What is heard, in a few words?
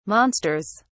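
"monsters", British English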